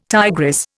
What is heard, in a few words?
"tigress", Indian